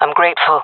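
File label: thankful, female; vo; Counter Strike Model Pack; CS:GO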